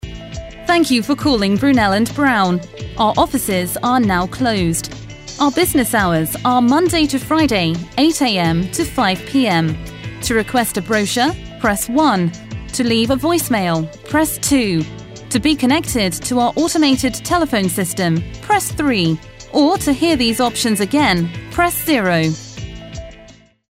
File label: IVR